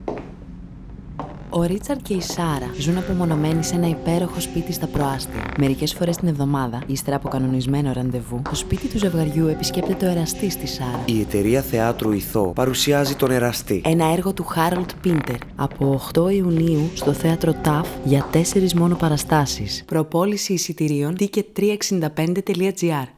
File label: radio spot; Ο ΕΡΑΣΤΗΣ